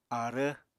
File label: "aarv", apatani